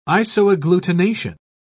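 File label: "isoagglutination", British English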